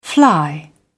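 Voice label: "fly", British English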